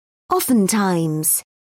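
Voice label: "oftentimes", British English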